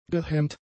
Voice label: "gehemmt", German; adjectives